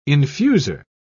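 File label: "infuser", British English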